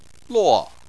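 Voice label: "luo", Chinese, fourth tone; Chinese Surnames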